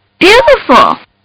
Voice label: Kid Says Beautiful; Talking; Voice

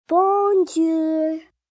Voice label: Hello Child, child, girl, French; Greetings; Greetings And Goodbye

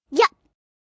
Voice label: "Yup", Response, 1 child, 5 yrs.; Talking

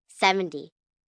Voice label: Numbers "Seventy", 1 child, girl counting; Talking Sound Effects

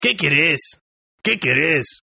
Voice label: Toughguy Help Spanish, Tough Hispanic Male; Etiquette Sound Effects

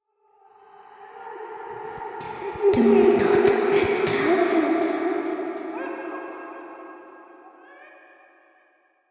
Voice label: Do Not Enter, Female Warning, Spirit; Do Not Enter - Female Warning - Gateway Spirit; Talking Sound Effects; horror sound effect

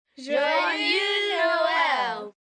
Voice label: Group French Xmas, Group, 2-4 children, French; Christmas Sound Effects

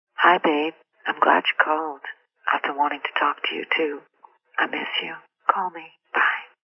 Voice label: nonsense child, Female, Telephone Voice

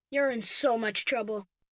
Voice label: In So Much Trouble, 1 boy, 9 yrs; Talking Sound Effects

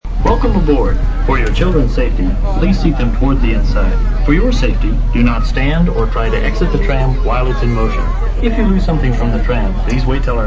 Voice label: Shttle Elc Annc, Safety instructions aboard tram.; Tram Safety Announcement; Recorded Voice

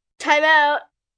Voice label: Time Out, 1 child, 10 yrs; Talking; VoiceBox

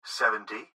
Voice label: Gps Voice, Male

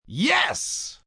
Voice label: "Yes" React, Reaction, positive, male; Exclamation; VoiceBox

